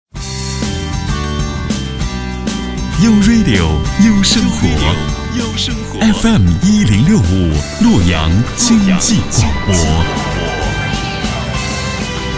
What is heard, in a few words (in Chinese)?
男 电台片花 radio 经济广播; 洛阳